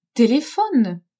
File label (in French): "Telephone !", French; telephoner